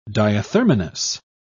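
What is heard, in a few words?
"diathermanous", British English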